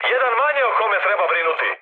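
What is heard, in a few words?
Serbian player killed enemy mood high, speech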